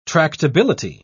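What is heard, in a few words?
"tractability", British English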